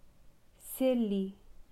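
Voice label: "Silly", English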